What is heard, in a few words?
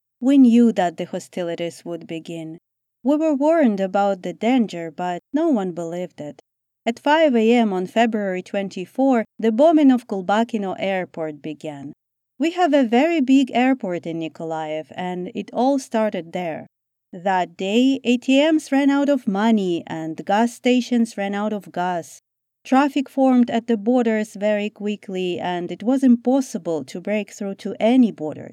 Voiceover for Ukrainian content